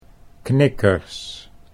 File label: "knikkers", Dutch